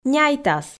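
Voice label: "няйтас", Komi, female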